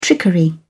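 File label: "trickery", British English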